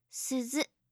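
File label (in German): "suzu", japanische Mädchennamen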